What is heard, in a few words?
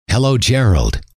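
"hello", male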